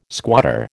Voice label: "squatter", American